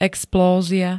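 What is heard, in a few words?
"explózia", Slovak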